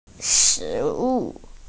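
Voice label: "food", Mandarin; shi2wu4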